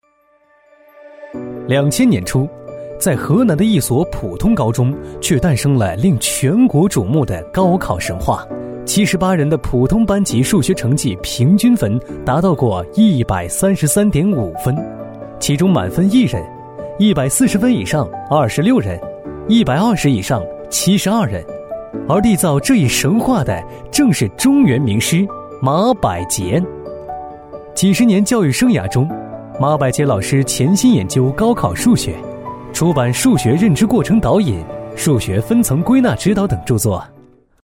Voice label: 男 人物专题 积极向上

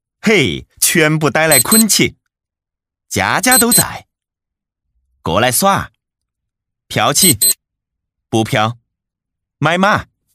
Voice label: 男 方言语音 棋牌语音 四川方言

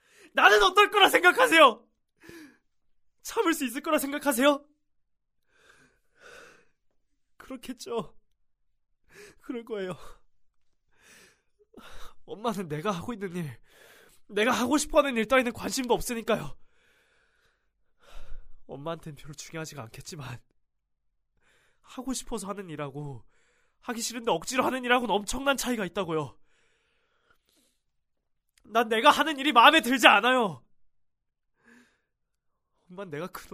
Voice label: 韩语男声 信息流游戏

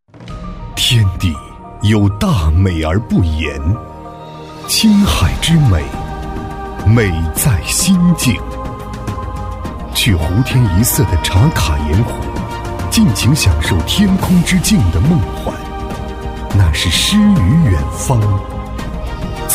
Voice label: 男 旅游宣传片 浑厚抒情; 高端品质; 沉稳大气; 礼赞

